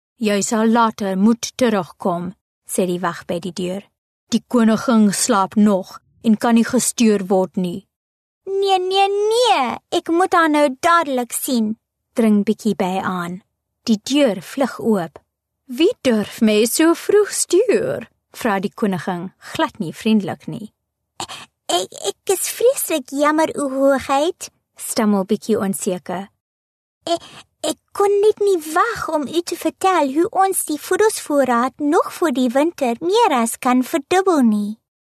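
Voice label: Afrikaans female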